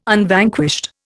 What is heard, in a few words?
"Unvanquished", Indian